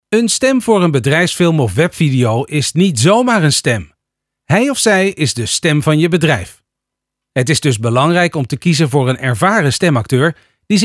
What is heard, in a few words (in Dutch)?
BEDRIJFSFILM